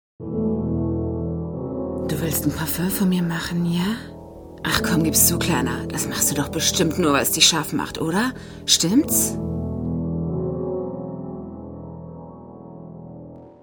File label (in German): Erotische Frau